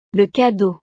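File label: "le cadeau", French; navidad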